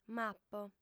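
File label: "m'apo", female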